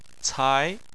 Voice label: "cai2", chinese